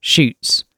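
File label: "shoots", British English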